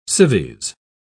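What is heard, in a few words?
"civies", British English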